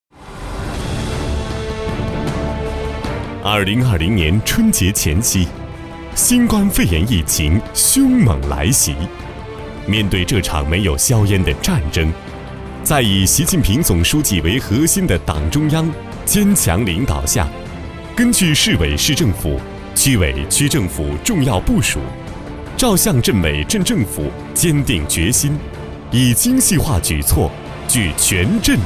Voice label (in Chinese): 男 专题 新冠疫情 大气激昂